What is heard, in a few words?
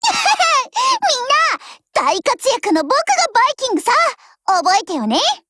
WIN JP; MP446